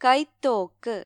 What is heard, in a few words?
"rifle", ml; gcompris